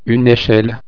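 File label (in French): "echelle", French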